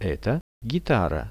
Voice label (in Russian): "eto gitara", Russian; Это гитара; music; RUSSIAN VISUAL DICTIONARY